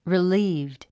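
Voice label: "relieved", English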